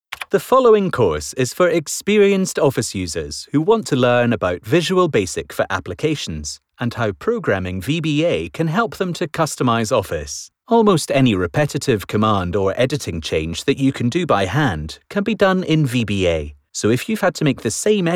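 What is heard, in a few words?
British English, Scottish, male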